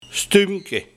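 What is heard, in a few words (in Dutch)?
"Stumpke", dialect; Kort stukje oa sigaret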